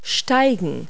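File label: "climb", English word; Multi-Lingual Talking Picture Dictionary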